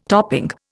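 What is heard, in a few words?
"topple", Indian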